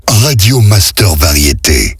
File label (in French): Accap slow, Voix off; Radio Master Variétés